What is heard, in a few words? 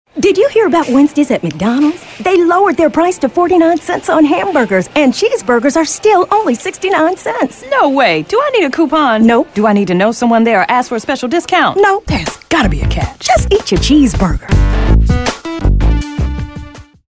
real midlife